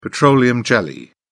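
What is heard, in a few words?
"petroleum jelly", English, British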